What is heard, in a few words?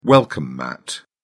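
"welcome mat", British English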